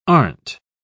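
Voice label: "aren't", English, British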